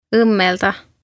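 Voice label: "ommelda", Estonian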